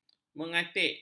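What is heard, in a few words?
"mengatik", Malay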